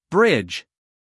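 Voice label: "bridge", American English, male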